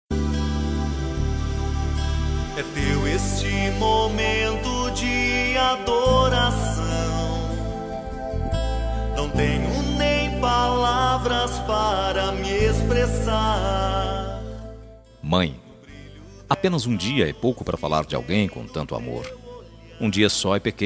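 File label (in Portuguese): DIA DAS MAES RELIGIOSOS male, telemensagem; DIA DAS MAES RELIGIOSOS MASC; Padre Fabio de Melo; telemensagem dia das maes diversos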